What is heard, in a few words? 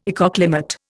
"ecoclimate", Indian